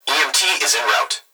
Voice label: Emergency Human Young Male Voice Fire Dispatch EMT Is In Route; emergency effects